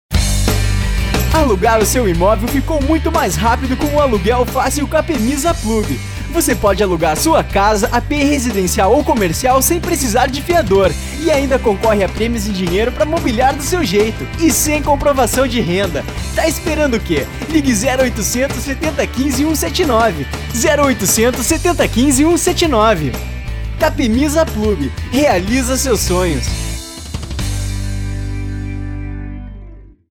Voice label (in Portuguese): Spot